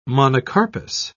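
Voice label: "monocarpous", English, British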